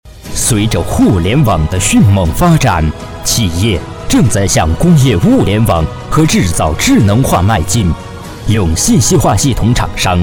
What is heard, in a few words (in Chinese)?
专题 大气企业宣传, 国语男声配音; 创美集团